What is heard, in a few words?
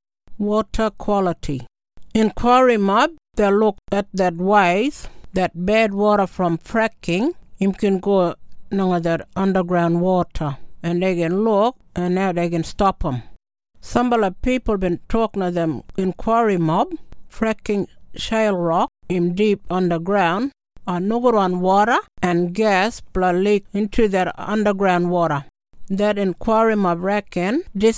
KRIOL; WHAT QUALITY